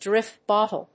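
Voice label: "drift bottle", English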